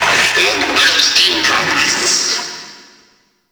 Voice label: Friendly Hack Vocal

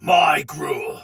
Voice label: Attack Takeover Soldier Sword Agent Male, Battle; Dialog; Barks; Melee; Sinecure

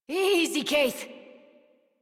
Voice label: Horse Stop Adult; Warframe; Duviri

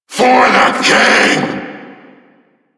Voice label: Vocal Attack

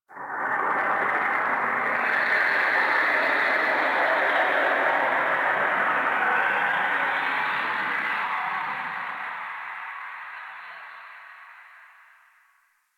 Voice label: Chimera Main Room Whisper One Off; Ambience; Warframe; DE

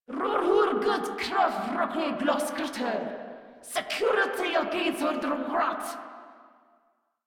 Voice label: Patrol Grineer Female; Warframe

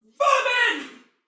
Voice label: Attack Normal, Female, Soldier; Dialog; Barks; Battle; Sinecure

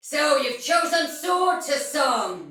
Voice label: See Player Shout Soldier Female, Battle; Dialog; Sinecure

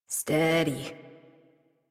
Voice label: Horse Calm Adult; Warframe; Duviri

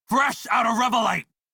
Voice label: Crew Need Revolite Male en; Dialog; Warframe; Railjack Crew; Red Veil

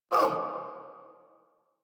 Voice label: Pain; Warframe; Eidolon Skiff Grineer

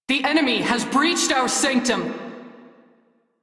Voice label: Crew Enemy Spot, Female; Dialog; Warframe; Railjack Crew; New Loka

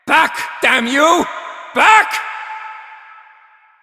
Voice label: Male; Warframe; Cetus Invasion; Villagers; en

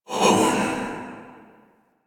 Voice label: Fire Whispers; Weapons; Warframe; Tenno; Grimoire

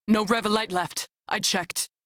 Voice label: Crew Need Revolite, Female; Dialog; en; Warframe; Railjack Crew; New Loka

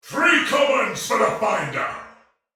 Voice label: Sight lost shout, male soldier; Dialog; Barks; Battle; Sinecure

